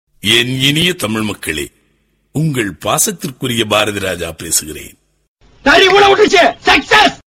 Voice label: funny tal; ringtones; sms; goundamani

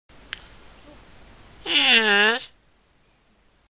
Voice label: yeeeeeeees

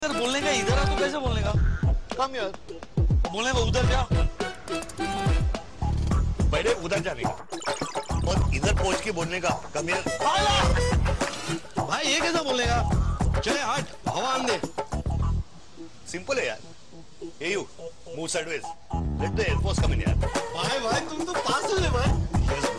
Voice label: tamil dialog

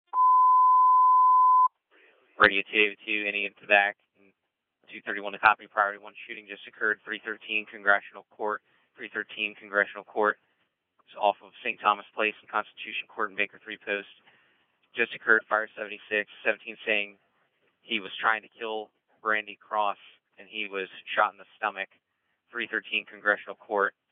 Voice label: Police dispatcher

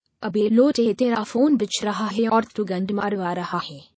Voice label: girl abusing in hind

